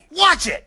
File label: friendly, grunt; svencoop; st allies; nimble grunt